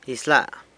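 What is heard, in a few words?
"Izyla", San Lucas Quiaviní Zapotec; Fine.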